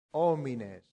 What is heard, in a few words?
"homines", Latin; santillana